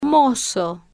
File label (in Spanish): "mozo", Spanish